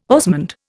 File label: "osmund", Indian